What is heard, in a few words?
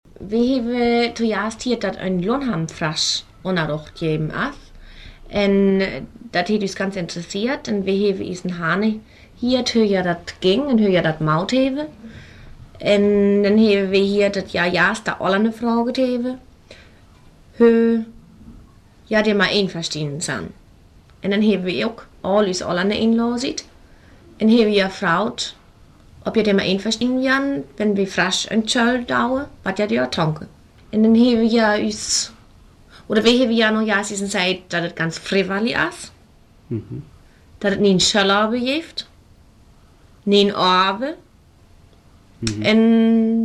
Frisian; languages